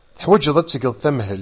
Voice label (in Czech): berberština